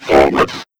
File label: Vocal Forwards, exclamation